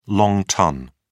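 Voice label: "long ton", British English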